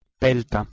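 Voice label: "pelth2", Proto-Indo-European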